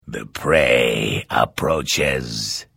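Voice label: Hello, Vo; Morrowind GOTY GOG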